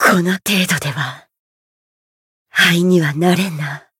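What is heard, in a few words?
win barely, Japanese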